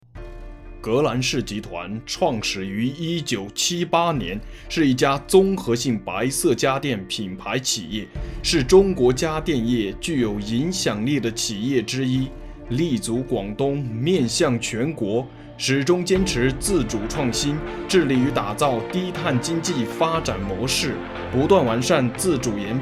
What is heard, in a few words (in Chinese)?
企业宣传片